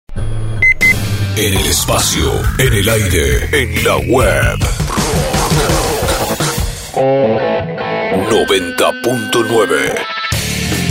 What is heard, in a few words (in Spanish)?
PACK ARTISTICA ROCK